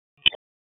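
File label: Raleigh Police Special Operations Tactical, p25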